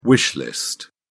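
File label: "wish list", English, British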